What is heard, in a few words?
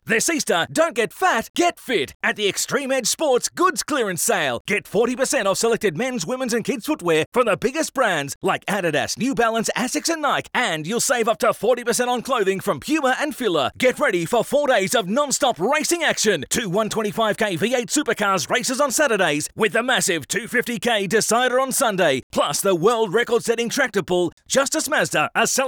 Hardsell